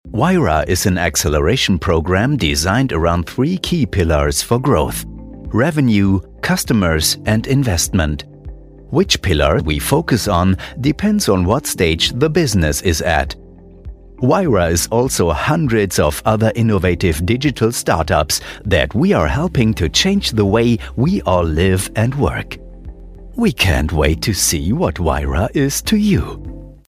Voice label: german english